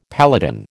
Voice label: "paladin", American

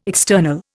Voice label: "external", Indian

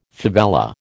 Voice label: "favela", American

